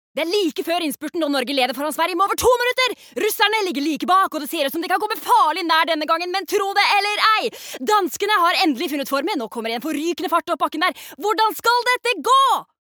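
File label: Norwegian dub commentator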